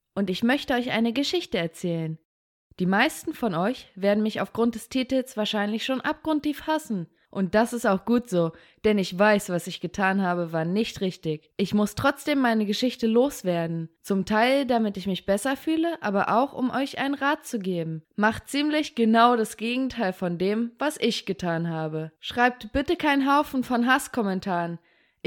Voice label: GERMAN